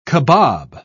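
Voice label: "kabob", British English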